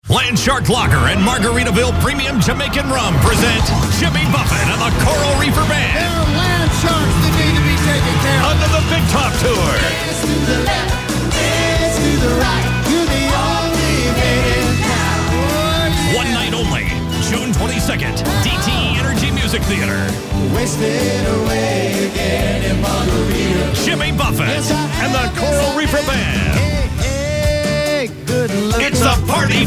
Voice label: radio ad; wcsx